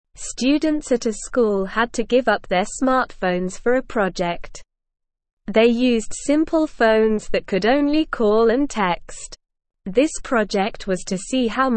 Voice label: English Newsroom Beginner SLOW Reading; Students Give Up Smartphones to See How Much They Rely on The

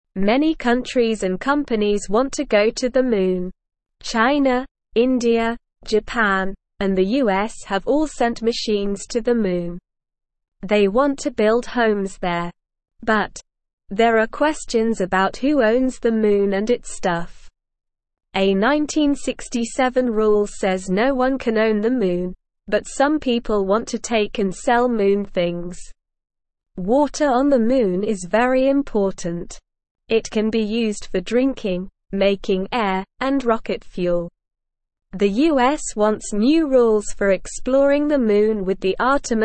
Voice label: English Beginner SLOW Reading; Who Owns the Moon

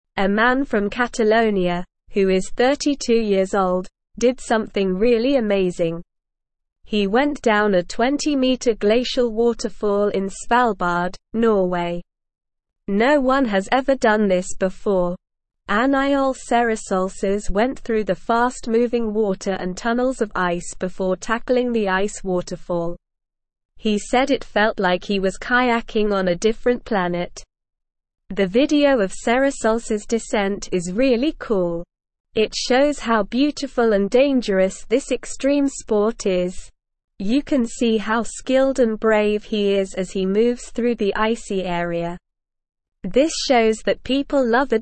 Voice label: English Newsroom Upper Intermediate SLOW Reading; Record breaking kayaker descends largest ice waterf

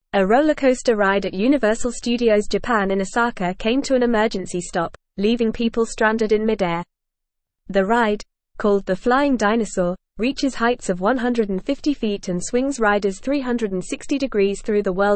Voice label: English Newsroom Advanced FAST Reading; Rollercoaster at Universal Studios Japan stops mid air

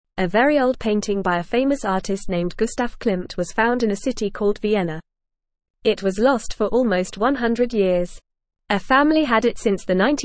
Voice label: English Newsroom Lower Intermediate FAST Reading

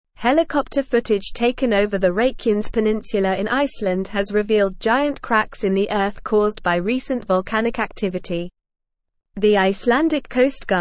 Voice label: English Newsroom Advanced NORMAL Reading; Icelandic Coast Guard captures volcanic cracks on Reykjanes

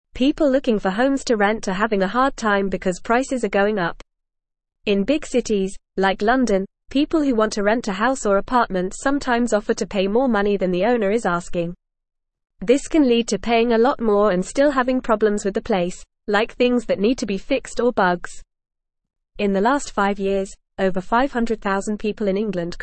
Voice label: English Newsroom Lower Intermediate FAST Reading; Renting Homes Making it Fair for Everyone

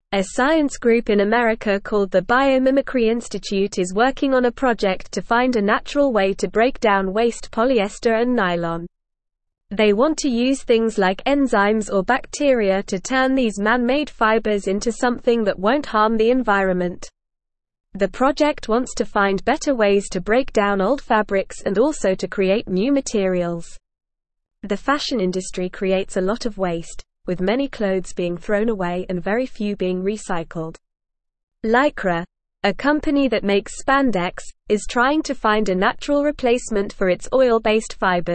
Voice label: English Lower Intermediate NORMAL Reading; Making Clothes Better for the Planet; English Newsroom

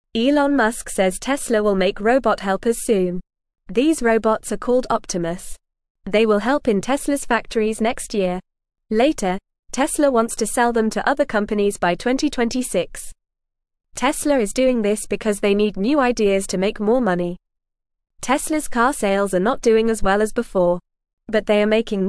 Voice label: English Beginner FAST Reading; Teslas New Robot Helpers Will Come Soon

